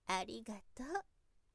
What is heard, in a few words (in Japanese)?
"arigato", zyosei